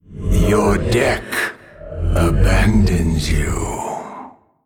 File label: Male Old God Emote No Cards